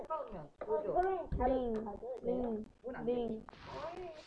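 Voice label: "ring", spoken word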